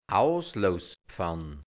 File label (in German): "Auslousspfann", hianzisch Mundart Burgenland